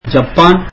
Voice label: tamil Japan

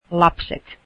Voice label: children, Finnish

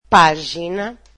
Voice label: portuguese page

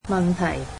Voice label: "question", Cantonese

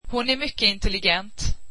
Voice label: swedish she is very intelligent

